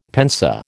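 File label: "pensée", American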